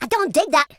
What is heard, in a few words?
"oh dear", Madchester